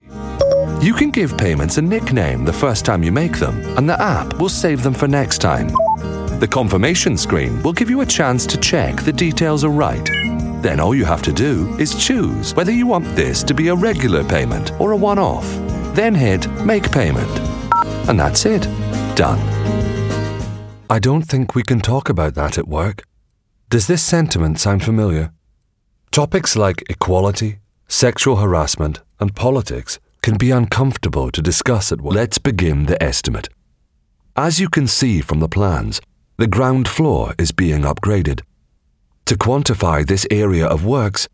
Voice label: elearning